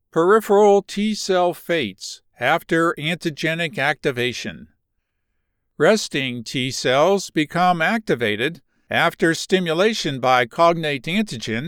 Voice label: science medical narration; Biology T-cell fates